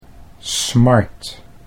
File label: "smart", Dutch